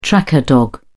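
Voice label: "tracker dog", British English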